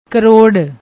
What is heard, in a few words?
"krorr", Punjabi; ਕਰੋੜ